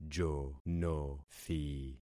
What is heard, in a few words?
"jonothi", concat syllables; Affixing experiment